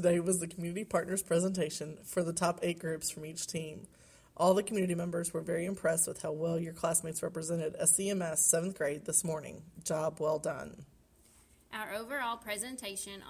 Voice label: seatbelt announcement